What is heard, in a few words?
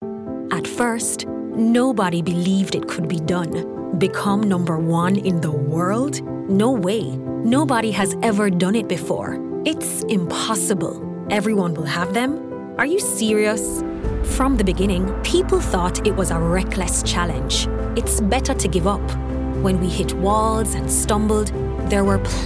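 English Caribbean, female, corporate; accent of the caribbean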